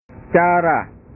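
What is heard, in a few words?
"cara", Indonesian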